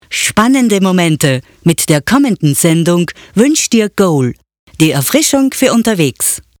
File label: german austrian promos